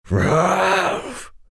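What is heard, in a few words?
anger, Chinese; Chen; Dota 2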